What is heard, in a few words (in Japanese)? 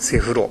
"しぇふろ", 南山形ことば